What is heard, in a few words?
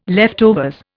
"leftovers", Indian